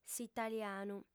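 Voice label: "s'italianu", female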